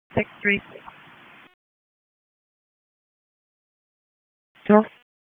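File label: Police Northeast District Dispatch; Raleigh; wake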